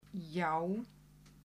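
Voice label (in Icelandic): "já", Icelandic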